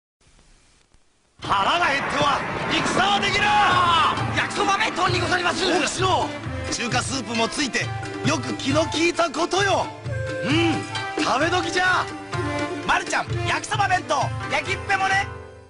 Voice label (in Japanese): 北海道ローカルCM やきそば弁当; 吉村明宏